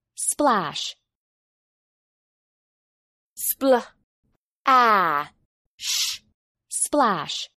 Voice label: "splash", English